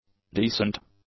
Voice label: "decent", english; adjectives

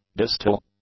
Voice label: "distal", English; adjectives

